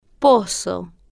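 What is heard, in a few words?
"pozo" Spanish, female, slow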